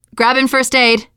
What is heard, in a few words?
take first aid, teengirl, voice; player; survivor